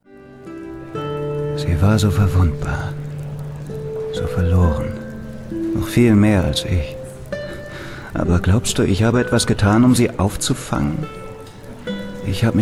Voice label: niedergeschlagen; enderal

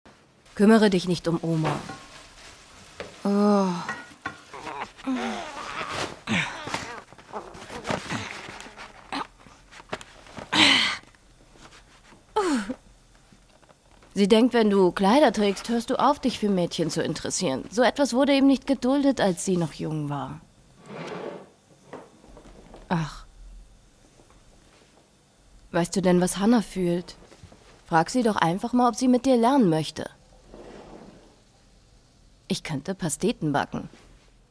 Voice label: D Lehrfilm Mutter

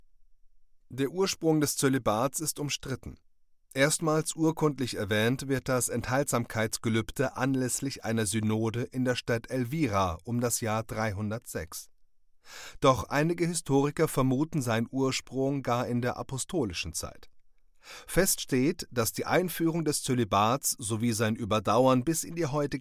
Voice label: Reportage

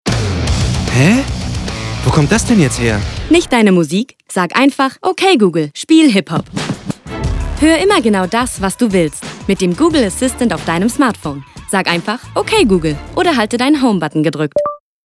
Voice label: Rap Funkspot; Google Assistant

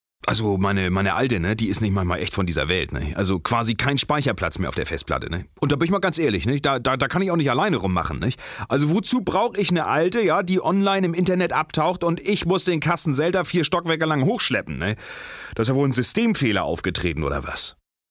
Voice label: dialekt

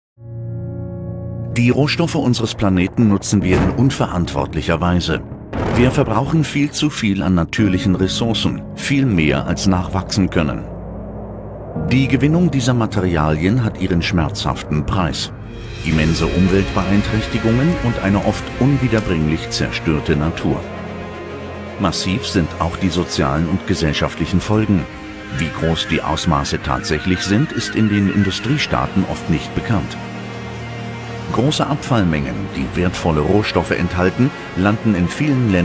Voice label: imagefilm kreislaufwirtschaft

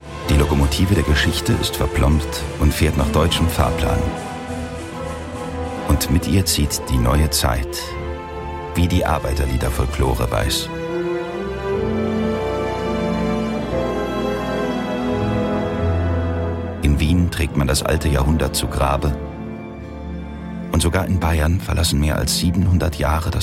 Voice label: Doku

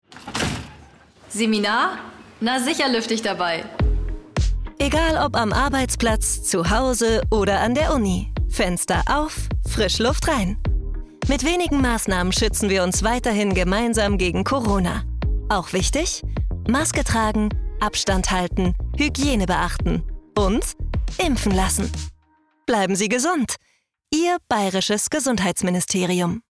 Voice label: Werbung Radiospot

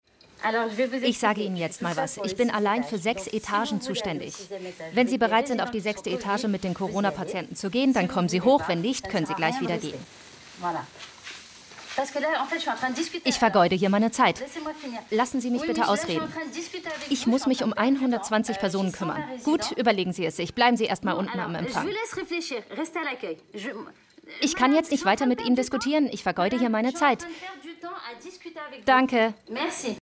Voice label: Voice Over Doku; Arte

